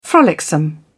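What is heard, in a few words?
"frolicsome", British English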